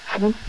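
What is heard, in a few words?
voice acting, es, female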